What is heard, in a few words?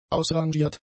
"ausrangiert", German; adjectives